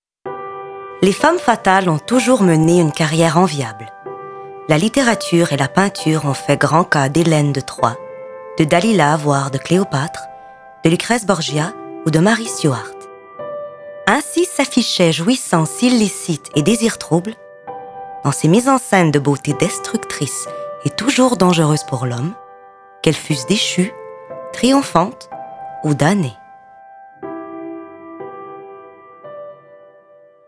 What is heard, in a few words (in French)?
narration